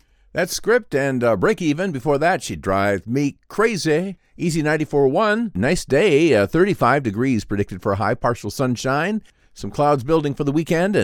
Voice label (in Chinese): 外籍英男 DJ风格 口语化